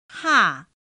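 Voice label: "pà", chino mandarin; pinyin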